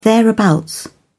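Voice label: "thereabouts", British English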